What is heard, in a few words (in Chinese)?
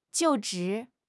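"就职", Chinese; HSK6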